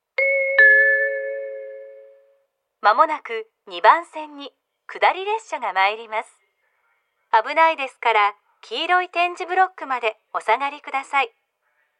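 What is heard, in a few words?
platform 2 down approaching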